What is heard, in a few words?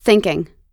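"thinking", American English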